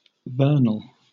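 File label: "vernal", British English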